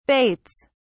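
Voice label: "baits", British English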